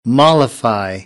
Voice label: "mollify", American English; ə - ɪ